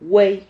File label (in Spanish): "huey", Silabas